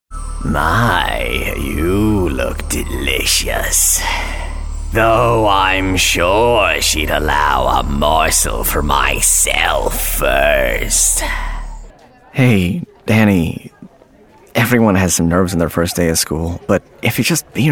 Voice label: ANIMATION